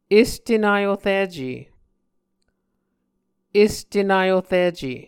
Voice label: "este naorice", Muscogee